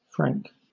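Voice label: "franc", British English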